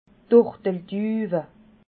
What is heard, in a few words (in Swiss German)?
"turtelduwe", alsace